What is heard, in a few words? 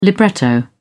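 "libretto", British English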